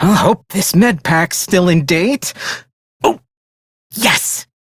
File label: Crew Heal Self, Male; Dialog; Warframe; Railjack Crew; Perrin Sequence